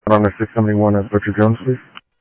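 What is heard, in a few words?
Sheriff Lake Patrol, scanner audio trunk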